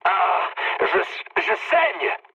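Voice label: damaged pilot, mood low, French; War Thunder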